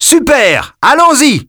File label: French - dialogue, ok; Birthday Party Bash; Wii